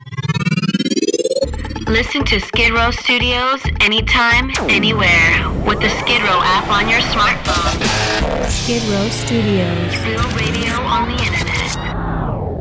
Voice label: Promo; Skidrow Studios